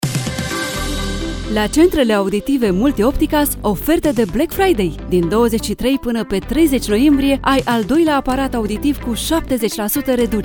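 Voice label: Romanian, Commercial; GoLocalise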